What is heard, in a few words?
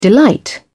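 "delight", British English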